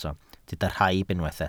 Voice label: "rhaib", welsh